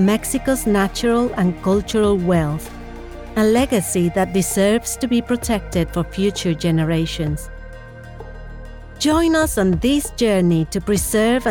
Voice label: Lacandon Jungle Narration English; Mexican female VoiceOver artist and actor; bilingual